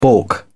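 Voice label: "baulk", British English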